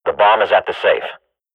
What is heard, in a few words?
radio bot bomb at safe, swat; Counter Strike Model Pack; CS:GO